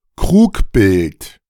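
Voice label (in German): "Krugbild", German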